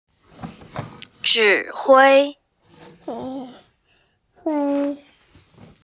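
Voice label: "zhi3hui1", Mandarin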